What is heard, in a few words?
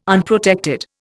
"Unprotected", Indian